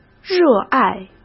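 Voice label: "热爱", Mandarin Chinese; chinese flashcards; hsk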